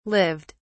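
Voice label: "lived", British English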